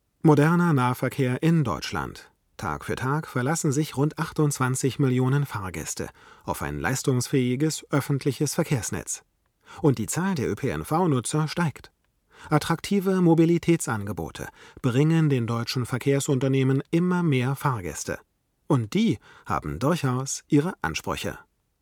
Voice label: m990 bearb, Sprecherraum